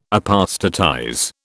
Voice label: "apostatize", British

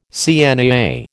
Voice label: "CNAA", American

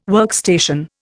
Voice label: "workstation", Indian

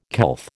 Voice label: "couth", American

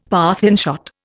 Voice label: "Parthian shot", Indian; Dictionary

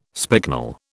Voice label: "spicknel", British

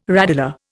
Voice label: "radula", Indian

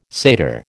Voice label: "Seder", American

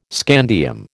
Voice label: "scandium", American

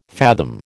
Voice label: "fathom", American

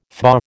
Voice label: "FBA", American

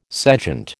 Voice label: "sejant", American

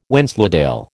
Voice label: "Wensleydale", American

